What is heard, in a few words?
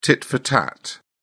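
"tit for tat", British English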